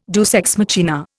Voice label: "deus ex machina", Indian

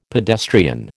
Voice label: "pedestrian", American

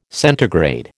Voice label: "centigrade", American

